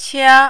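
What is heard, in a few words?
"qia", Mandarin, first tone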